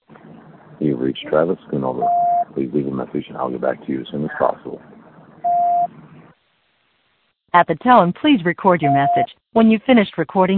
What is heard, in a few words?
voicemail